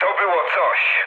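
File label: trick mood med, speech Polish; War Thunder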